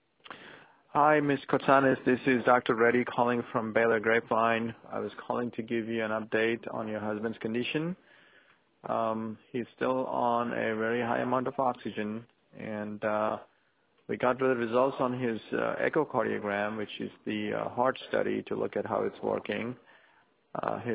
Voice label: voicemail